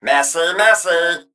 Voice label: Male Mech Attack